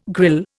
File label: "grille", Indian